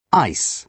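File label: "ice", British English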